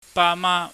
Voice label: "bama", Cantonese

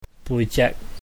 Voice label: "buijek", cantonese; body; 身體

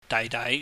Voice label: "daihdai", cantonese

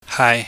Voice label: "hai", chinese

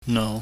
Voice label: "nou", pingyam

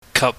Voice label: "kap", chinese

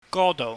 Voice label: "godou", Cantonese

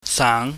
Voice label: "saang", Chinese

